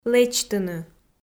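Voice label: "лэчтыны" Komi, female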